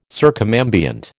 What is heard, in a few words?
"circumambient", American